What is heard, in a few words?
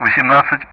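Speech Sound Russian; DCS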